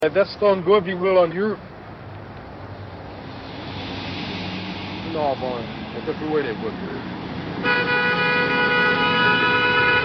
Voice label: men are talking